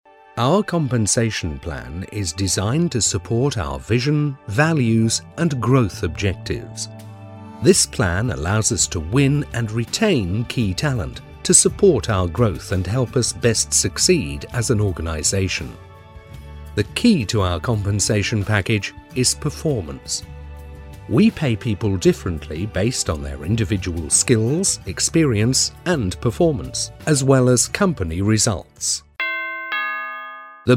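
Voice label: English British male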